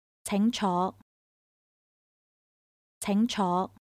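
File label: Please take a seat, Chinese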